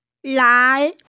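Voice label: "lai2", Mandarin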